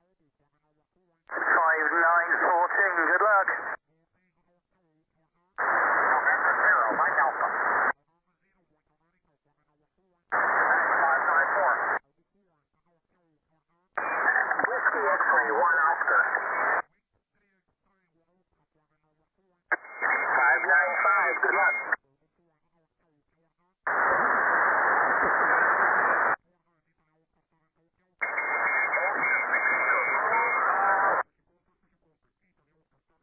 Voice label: USB 14MHz; CQWW SSB